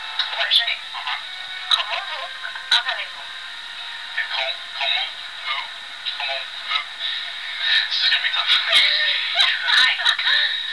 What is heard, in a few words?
french